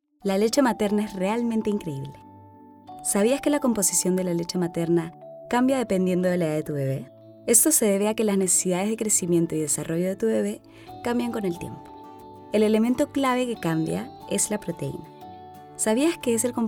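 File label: female latin american peruvian comercial; latam; ideasonora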